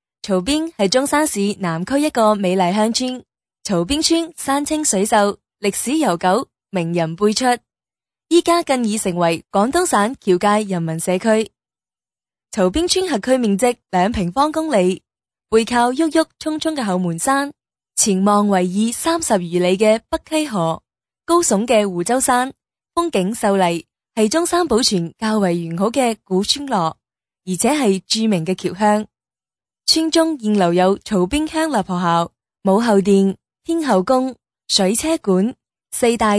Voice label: 女声 粤语 介绍; 曹边村

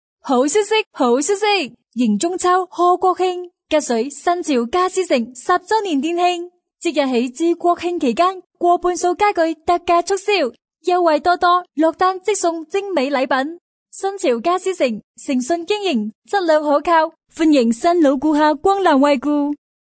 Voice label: 女声 促销 激情 国庆 家私城 粤语

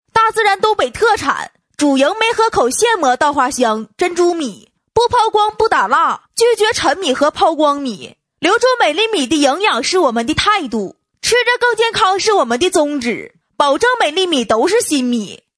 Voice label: 东北话促销 稻花香

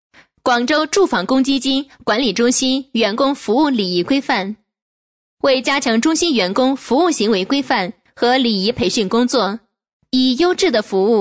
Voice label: 女声 政府报告 礼仪规范 正式稳重; 广州住房公积

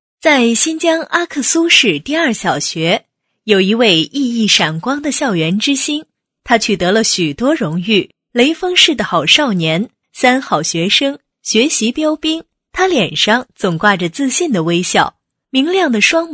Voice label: 女声 人物介绍 好少年

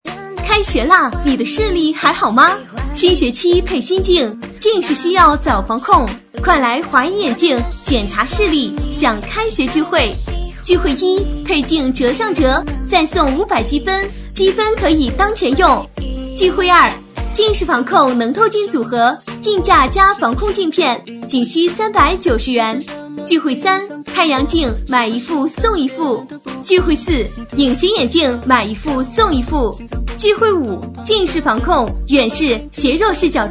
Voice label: 女声; 华一眼镜开学活动

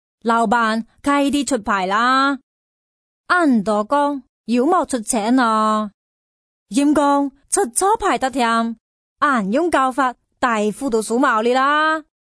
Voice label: 女声 麻将棋牌 语 客家

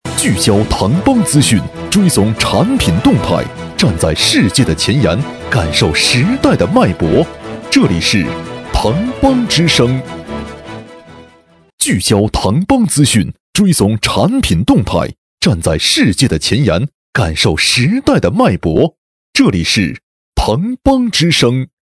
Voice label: 男声 资讯电台; 腾邦

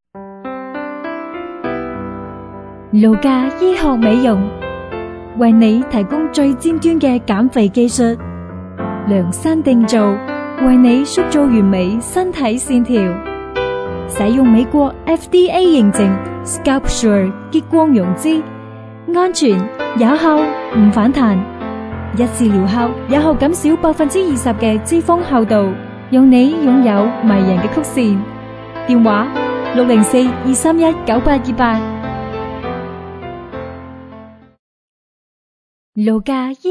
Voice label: 女声广告温柔 美容广告粤语

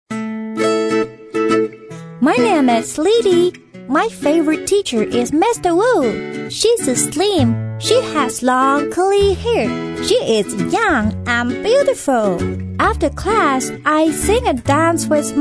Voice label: 女声 个配 英文 童声

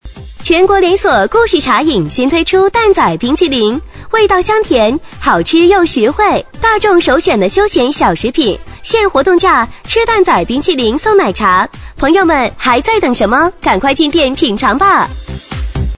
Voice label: 女声蛋仔冰淇淋叫卖

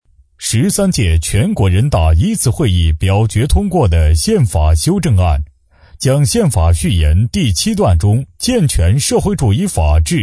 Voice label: 男声宪法专题片干音

